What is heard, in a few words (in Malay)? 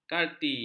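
"kati", Malay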